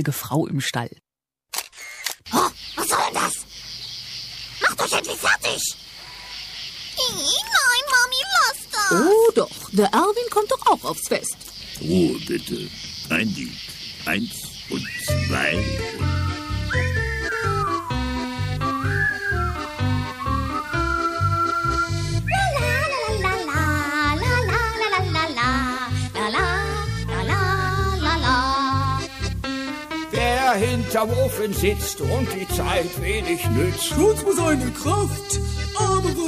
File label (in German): Comic